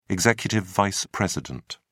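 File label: "executive vice president", British English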